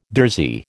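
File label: "durzi", American English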